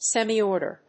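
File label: "semi order", English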